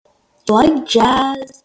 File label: ya like jazz glitched